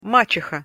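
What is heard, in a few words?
"maceha", Russian pronunciation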